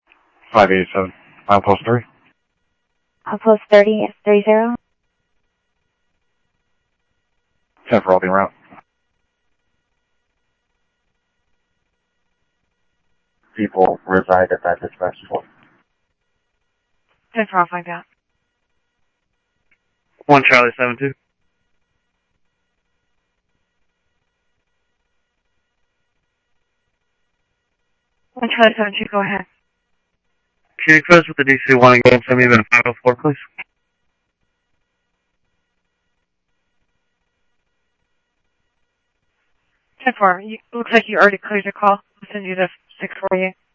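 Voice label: Lakes Sheriff Lake Patrol, scanner audio trunk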